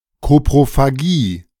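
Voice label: "Koprophagie", German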